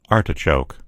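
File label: "artichoke", American English; gcompris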